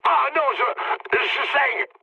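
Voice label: damaged pilot, mood low, French; War Thunder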